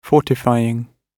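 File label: "fortifying", British English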